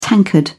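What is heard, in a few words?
"tankard", British English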